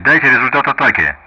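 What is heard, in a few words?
Speech Sound Russian; DCS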